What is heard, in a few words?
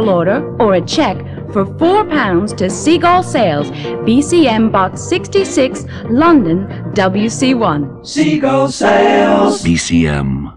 commercial, Beanie Hat; Seagull Sales